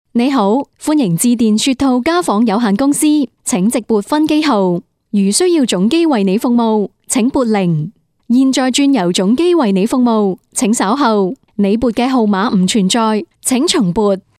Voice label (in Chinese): 电话客服